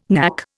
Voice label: "knack", Indian